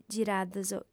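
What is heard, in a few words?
"girados", Sardinian, female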